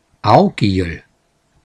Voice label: "Augial", hianzisch Mundart, Burgenland; Augial,m

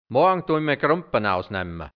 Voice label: "morgn tui ma Grumpan ausne(i)mma", hianzisch Mundart Burgenland

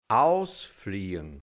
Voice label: "ausfliagn", hianzisch Mundart, Burgenland; ausgehen; niemand zu Hause

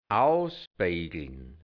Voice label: "ausbe(i)gln", hianzisch Mundart, Burgenland; ausbügeln; wieder gut machen

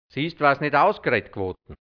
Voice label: "sist wars nit ausgre(i)dt gwotn", hianzisch Mundart Burgenland; ausre(i)dn

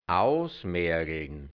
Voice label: "ausmergeln", hianzisch Mundart Burgenland; das Letzte herausholen

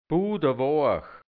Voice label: "budawoach", hianzisch Dialekt Burgenland; sehr weich

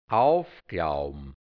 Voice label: "aufklaubm", hianzisch; aufheben; aufklauben; schlichten (Holz)

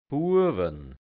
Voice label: "Buawan", hianzisch Dialekt Burgenland; Pl. Buben

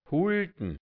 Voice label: "Huldn", Hianzisch Mundart; m Pl. Holde; arme Leute; Arbeitslose

